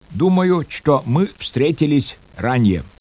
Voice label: speech russian